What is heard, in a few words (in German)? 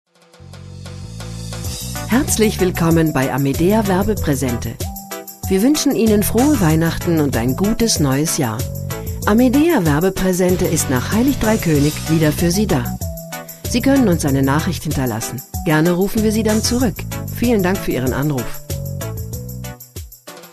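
Werbepraesente Anrufbeantworter Weihnachten; Amedea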